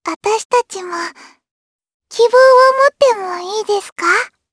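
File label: vox trs Japanese; Lavril; kingsraid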